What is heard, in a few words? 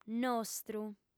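"nostru" Sardinian, female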